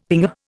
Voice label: "pinger", Indian; Dictionary

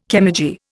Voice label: "chemurgy", Indian

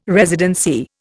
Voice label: "residency", Indian

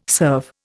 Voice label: "serve", Indian

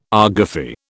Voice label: "argufy", British

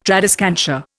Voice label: "tradescantia", Indian

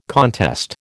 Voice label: "contest", American

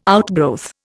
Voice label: "outgrowth", Indian

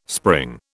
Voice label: "spring", British

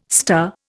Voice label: "star", Indian

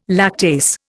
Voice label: "lactase", Indian

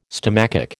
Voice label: "stomachic", American

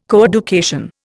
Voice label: "coeducation", Indian

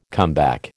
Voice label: "comeback", American

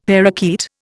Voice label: "parrakeet", Indian; Dictionary

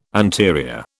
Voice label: "anterior", British

